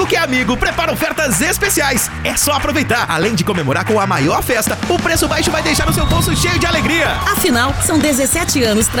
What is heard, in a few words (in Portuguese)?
Spot Aniversário Institucional